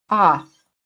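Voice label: "off", English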